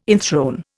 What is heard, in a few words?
"enthrone", Indian